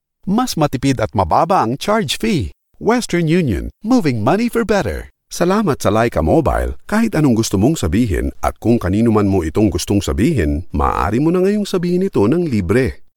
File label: Corporate Narrations